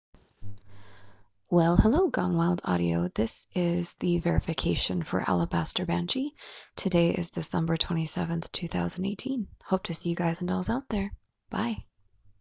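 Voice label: Female verification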